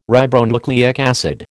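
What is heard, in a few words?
"ribonucleic acid", American English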